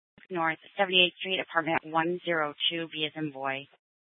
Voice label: Scottsdale PD Sth Dispatch, scanner